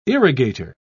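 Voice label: "irrigator", British English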